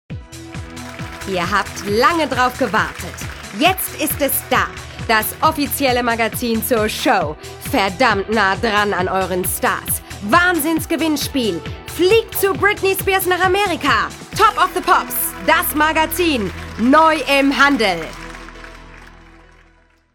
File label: TV Promotion